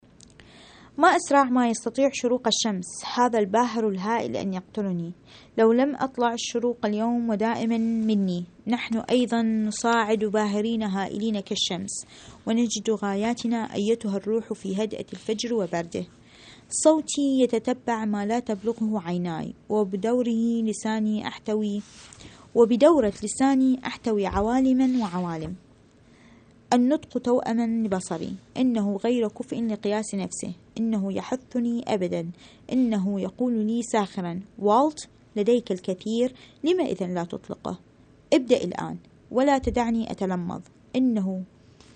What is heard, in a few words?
arabic